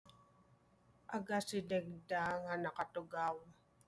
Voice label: ILOCANO